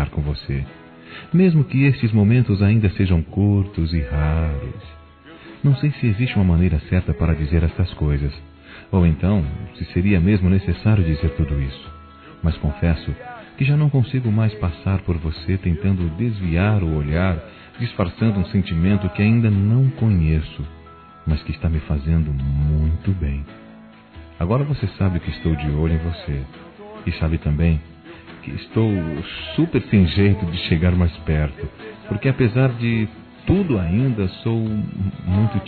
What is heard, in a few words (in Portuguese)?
Paquera Masculino; Telemensagens; Conquistas Cantadas paqueras; Telemensagens 2017